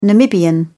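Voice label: "namibian", British English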